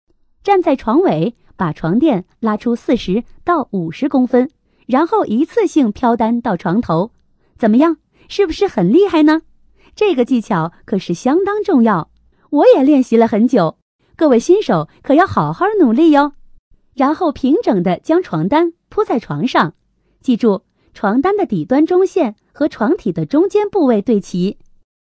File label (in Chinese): 女 讲解类 通俗易懂